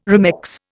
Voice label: "remex", Indian